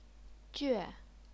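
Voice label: "jue", Chinese, fourth tone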